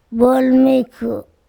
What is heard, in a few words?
bird, a kind of bolmikHu, andamanese